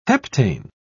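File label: "heptane", British English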